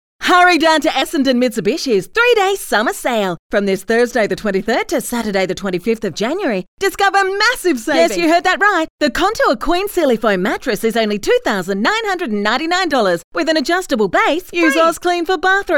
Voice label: Hard Sell